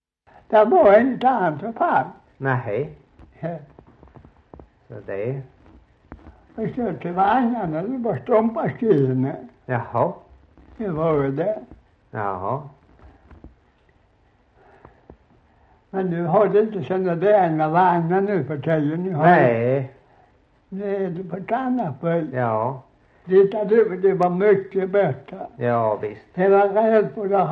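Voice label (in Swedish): Slättåkra Halland, dialekter; Folkminnen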